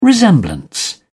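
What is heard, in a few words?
"resemblance", British English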